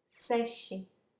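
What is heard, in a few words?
"sesi", Latvian, female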